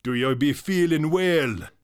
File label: vocal witch doctor dialogue do you be feelin well male